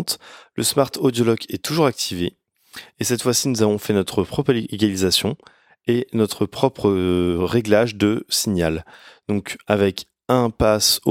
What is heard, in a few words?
logitech g yeti gx blue voice preset